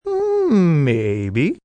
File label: "Mmmaybe", male; Talking; Response; VoiceBox